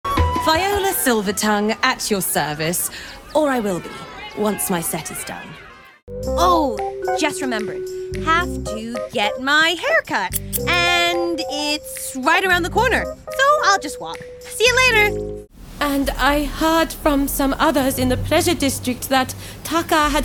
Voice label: Character Animation Voice